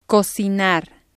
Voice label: "cocinar", spanish

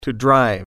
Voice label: "drive", English

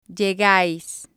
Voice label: "llegais", spanish

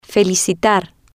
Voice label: "Felicitar", spanish

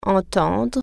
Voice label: hear, french

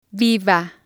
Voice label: "viva", Spanish

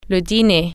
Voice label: "dinner", French

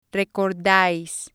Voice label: "recordais", spanish

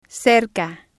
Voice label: "cerca", spanish

